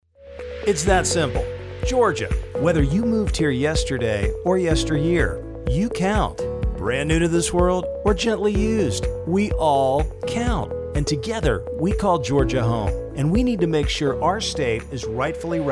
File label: Commercial Voice Campaign; Census